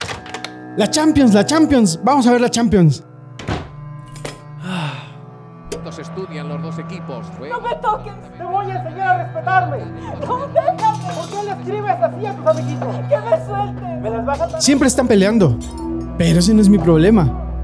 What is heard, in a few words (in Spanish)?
VIOLENCIA FISICA RADIO; podcast; “Mujeres al centro de la transformación”, es un podcast